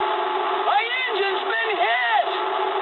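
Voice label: English damaged engine mood high; War Thunder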